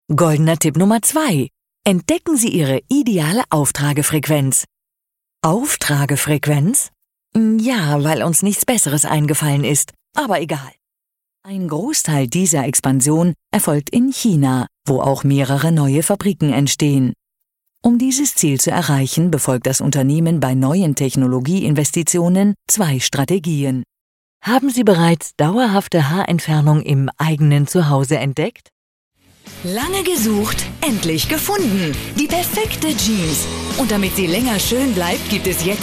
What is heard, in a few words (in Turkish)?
Almanca Kadin; seslendirme